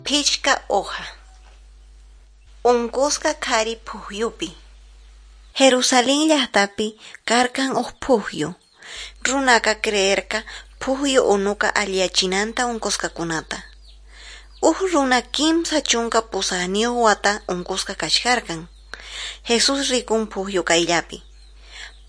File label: quechua norte de bolivia apolo